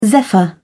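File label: "zephyr", British English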